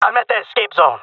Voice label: guarding escape zone, jungle male; player vo; Counter Strike Model Pack; CS:GO